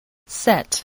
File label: "set", spelling